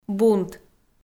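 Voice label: "бунт", Komi, female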